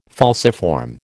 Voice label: "falciform", American

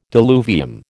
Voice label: "diluvium", American

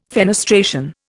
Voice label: "fenestration", Indian

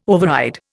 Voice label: "override", Indian

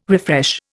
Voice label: "refresh", Indian

